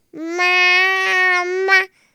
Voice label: Baby Says Mama